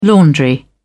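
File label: "laundry", British English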